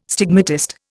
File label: "stigmatist", Indian